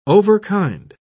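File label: "overkind", British English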